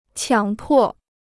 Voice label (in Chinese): "强迫", Chinese